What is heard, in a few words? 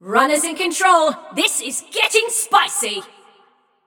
Scrap Run Runners Lead, Announcer; Flux